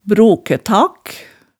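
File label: "brokætak", Hemsedal dialect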